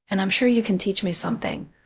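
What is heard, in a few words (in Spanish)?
Voice Acting, es, female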